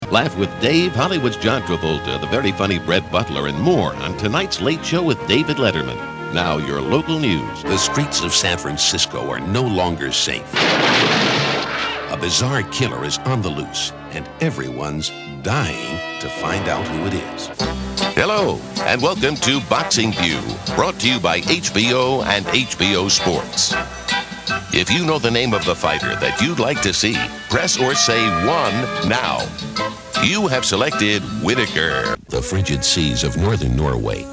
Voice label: Promos